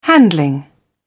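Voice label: "handling", British English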